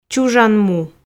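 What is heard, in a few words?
"чужан му", Komi, female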